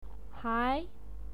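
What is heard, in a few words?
"hai", Mandarin Chinese pinyin, second tone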